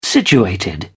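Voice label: "situated", British English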